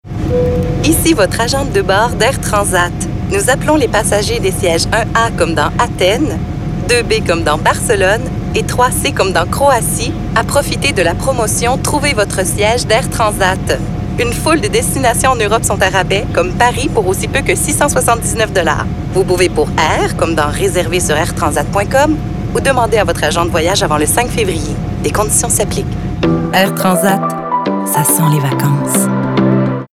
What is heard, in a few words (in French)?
pub annonceur fr normatif amical avec sourire promo medium adulte; air transat